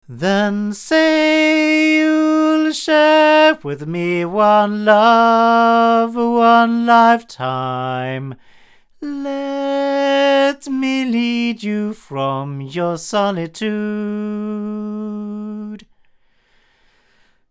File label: Avant CV-12 tube cardioid male